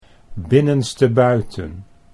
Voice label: "binnenstebuiten", Dutch

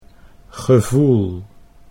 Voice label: "gevoel", Dutch

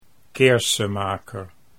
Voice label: "Keersemaker", Dutch

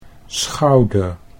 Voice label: "schouder", Dutch

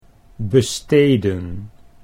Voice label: "besteden", Dutch

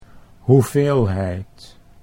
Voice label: "hoeveelheid", Dutch

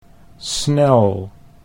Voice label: "snel", Dutch

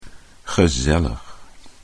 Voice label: "gezellig", Dutch

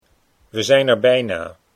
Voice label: we zijn er bijna, Dutch

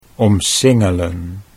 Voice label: "omsingelen", Dutch

